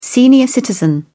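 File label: "senior citizen", British English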